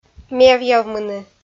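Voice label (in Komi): "мевъявмыны", Komi, female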